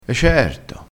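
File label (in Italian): "e certo", vocabolario dialettale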